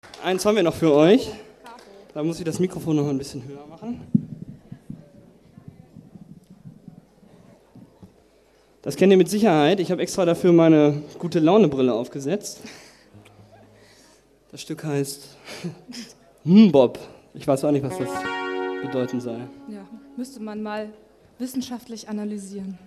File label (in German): Ansage